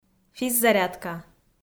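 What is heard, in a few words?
"физзарядка", Komi, female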